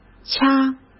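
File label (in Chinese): "掐", Mandarin Chinese; hsk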